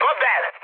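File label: attack position, mood high, Hungarian; War Thunder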